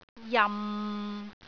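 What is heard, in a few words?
"jam6", Cantonese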